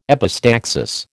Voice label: "epistaxis", American